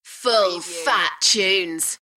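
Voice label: UK female, DJ vocals; Full phat tunes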